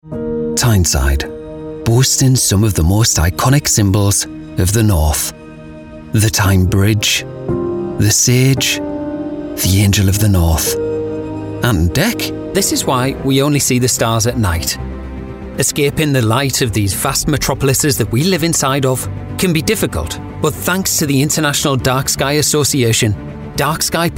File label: English M Narration; voice over